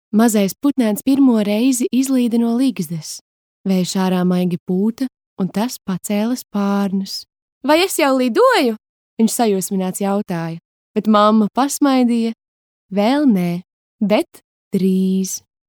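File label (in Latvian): Pasaka Latvian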